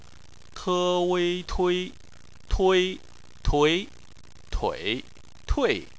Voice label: "tui", Chinese